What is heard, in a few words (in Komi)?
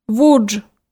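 "вудж", Komi-Zyrian, female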